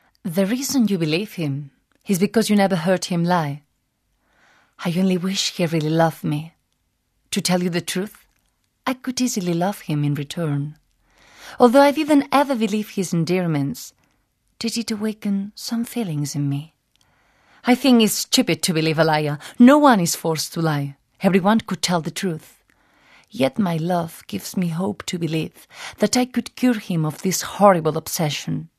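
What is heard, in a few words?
Spanish English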